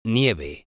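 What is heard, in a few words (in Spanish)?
"nieve", Spanish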